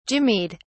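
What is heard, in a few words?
"jimmied", British English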